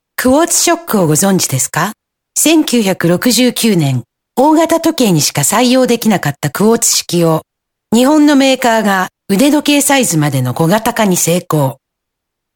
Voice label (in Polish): Japonski